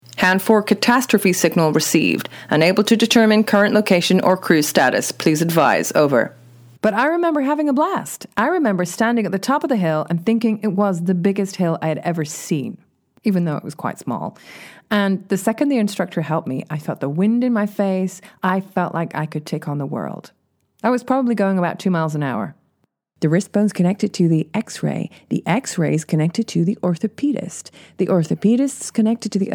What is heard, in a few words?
American and Transatlantic